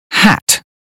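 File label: "hat", British phonetics; 英语音标发音（剑桥版）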